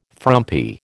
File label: "frumpy", American